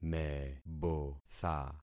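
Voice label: "mebotha", concatenated syllables; Affixing experiment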